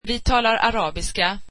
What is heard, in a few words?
swedish we speak Arabic